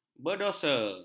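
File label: "berdosa", Malay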